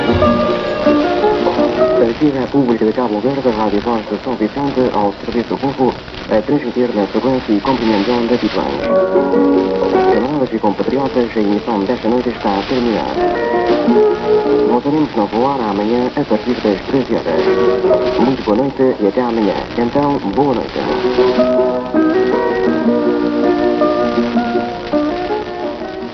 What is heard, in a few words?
Radio Voz de Sao Vicente; kapverdische